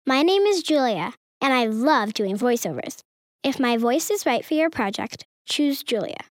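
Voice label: US child, voice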